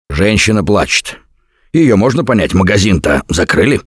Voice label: heard witch, left4dead2 russian, Survivor Voice; Gambler

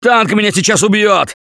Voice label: Taunt, left4dead2 russian, Survivor Voice; Gambler

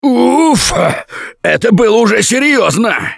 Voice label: defibrillator, left4dead2 russian, Survivor Voice

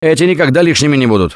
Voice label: Take Pills, left4dead2 russian, Survivor Voice; Gambler

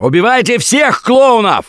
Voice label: see clowns, left4dead2 russian, Survivor Voice; Gambler

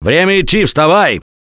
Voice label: Revive Friend Loud, left4dead2 russian, Survivor Voice; Gambler

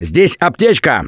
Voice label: Spot First Aid, left4dead2 russian, Survivor Voice; Gambler

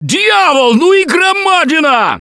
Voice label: warn tank, left4dead2 russian, Survivor Voice; Gambler

